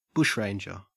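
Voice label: "bushranger", Australian English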